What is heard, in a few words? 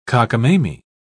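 "cockamamy", British English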